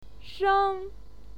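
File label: "sheng", Mandarin Chinese, fourth tone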